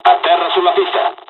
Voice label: hydroplane land, dialogs italian; War Thunder

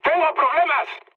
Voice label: aircraft damaged, mood high, speech; War Thunder

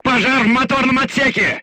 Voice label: voice message fire in tank, crew tanks russian

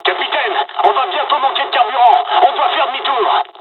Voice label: dialog, dialogs french; War Thunder; midway

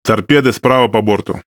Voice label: RU Speech Alarms Torpedo Right; World Of Warships; USSR Ovechkin

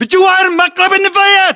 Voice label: landmark near dumpster, battle chatter, english

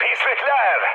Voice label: enemy detected, mood high, speech tur; War Thunder

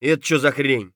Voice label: scav mutter, voice; Escape from Tarkov; scav3

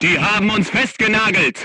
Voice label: voice message player tank hit, crew tanks german

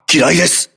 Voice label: voice message mine, crew ships japanese

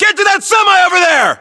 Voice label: US order cover semi, battlechatter, english

